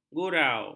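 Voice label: "gurau", Malay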